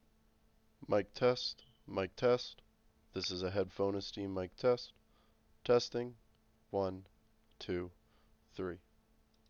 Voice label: Quiet environment with foam windscreen

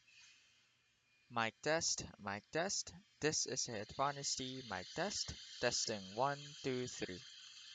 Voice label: HyperX Cloud II Noisy

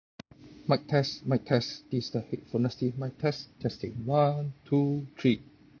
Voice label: quiet; Tribit FlyBuds C1

